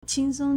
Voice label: "qing1 song1", Chinese